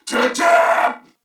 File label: Reload, Corpus Venus Male; Warframe; CorpusVenusMaleA